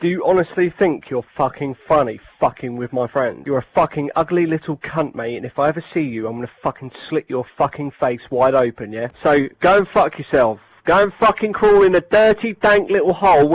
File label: angry voice message